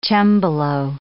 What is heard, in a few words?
"cembalo", English